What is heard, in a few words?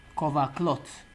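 "cover cloth", Nigerian Pidgin, male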